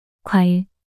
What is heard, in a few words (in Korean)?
"과일" 단어 여자